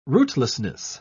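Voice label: "rootlessness", English, British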